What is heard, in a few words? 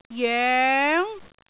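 "joeng2", Cantonese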